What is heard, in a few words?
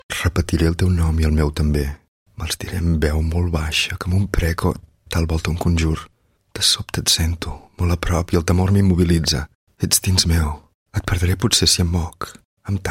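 Catalan, Narration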